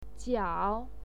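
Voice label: "jiao", Mandarin Chinese, third tone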